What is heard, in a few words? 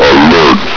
alert, hgrunt